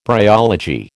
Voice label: "bryology", American

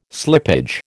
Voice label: "slippage", American

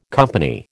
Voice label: "company", American

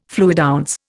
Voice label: "fluidounce", Indian

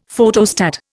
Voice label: "Photostat", Indian; Dictionary

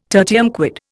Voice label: "tertium quid", Indian

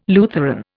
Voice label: "Lutheran", Indian

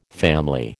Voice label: "family", American

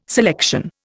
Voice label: "selection", Indian

